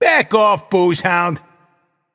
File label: taunt directed; cupid; bacchus